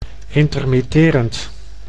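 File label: "intermitterend", Dutch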